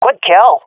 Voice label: sees enemy killed, swat fem; vo; Counter Strike Model Pack; CS:GO